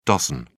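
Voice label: "doz", British English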